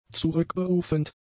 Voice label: "zurückberufend", German; adjectives